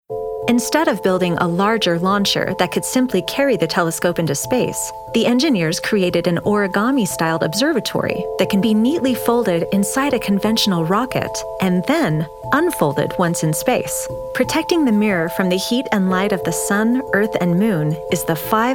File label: Narration